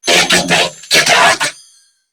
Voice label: Surprised Corpus Crewmen; New War; Narmer

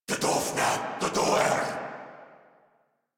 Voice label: Reload Corrupted Grineer Male

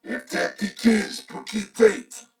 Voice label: Patrol, Corpus Venus Male; Dialog; Barks; Battle; Warframe; CorpusVenusMaleA

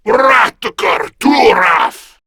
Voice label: Cover Me, Male

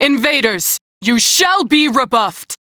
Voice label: Crew Enemy Spot, Female; Warframe; Railjack Crew; New Loka

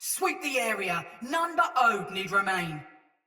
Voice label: Patrol Ode Soldier Mace Agent Female; Battle; Sinecure Melee

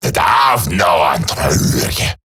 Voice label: Attack Eximus Grineer Male; Battle Barks

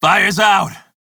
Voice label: crew fix fire, male; Dialog; en; Warframe; Railjack Crew; Red Veil

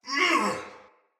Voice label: Attack, Barks Battle Soldier; Sinecure Soldier

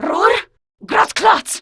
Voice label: Surprised, Female; Warframe; Grineer Female; Battle Barks

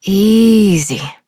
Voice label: Horse Calm Adult; Duviri

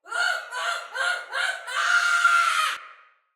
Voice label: Death Fire, Female; Battle; Barks; Dialog; Sinecure Soldier Female B

